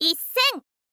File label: "issen", girl; voice